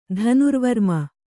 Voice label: "ಧನುರ್ವರ್ಮ", Kannada